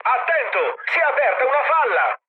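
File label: Italian damaged water mood med, speech; War Thunder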